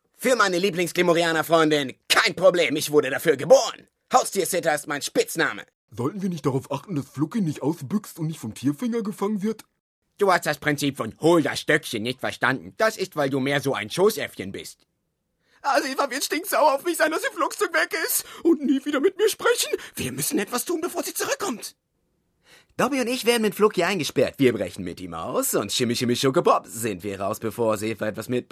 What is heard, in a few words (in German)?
Comic Chargen Mix